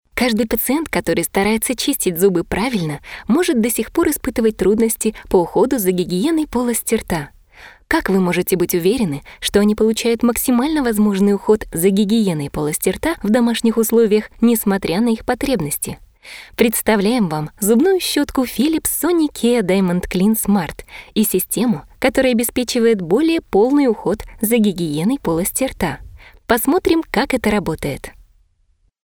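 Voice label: Russian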